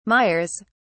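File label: "mires", British English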